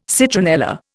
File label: "citronella", Indian